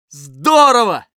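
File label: Scout cheers, Russian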